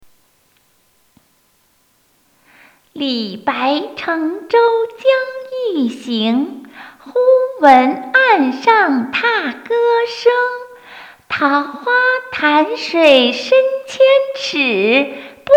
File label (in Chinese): 少女